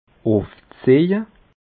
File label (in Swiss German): "ufzeje", alsace